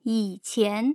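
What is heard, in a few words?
"yiqian", Mandarin Chinese, tone pairs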